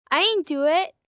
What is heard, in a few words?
I Didnt do It, 1 boy 9 yrs; Talking Sound Effects; VoiceBox; Twistedtracks